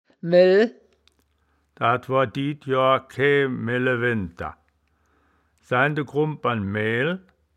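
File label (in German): "mel", Wittlicher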